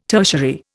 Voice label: "tertiary", Indian